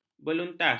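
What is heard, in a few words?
"beluntas", Malay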